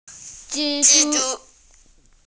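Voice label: "spider", Mandarin; zhi1zhu1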